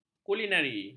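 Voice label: "kulinari", Malay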